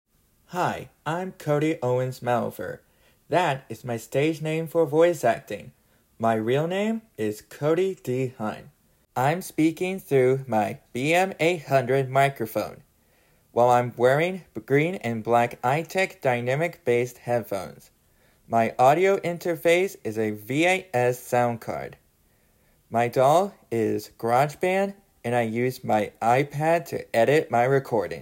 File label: Home Studio Audio